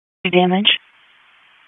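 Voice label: Police Dispatch; Wake Forest; p25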